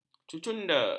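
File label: "cucunda", Malay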